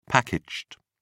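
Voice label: "packaged", British English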